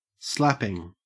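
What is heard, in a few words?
"slapping" English, Australian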